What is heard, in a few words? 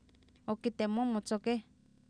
Oapan minimal pairs, female; ookitemOomOtsokEh; Oapan minimal pairs 1998